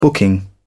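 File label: "booking", British English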